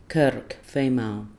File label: "kurrk", female